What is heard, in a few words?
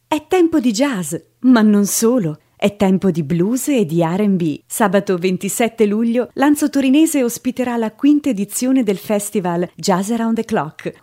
Italian